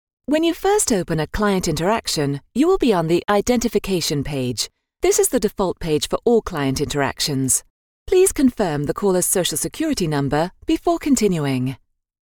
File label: e learning